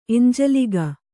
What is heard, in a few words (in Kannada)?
"ಎಂಜಲಿಗ", Kannada